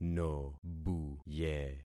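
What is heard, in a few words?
"nobuye", concatenated syllables; Affixing experiment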